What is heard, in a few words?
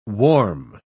"warm", pronounce